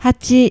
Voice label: "hachi", Japanese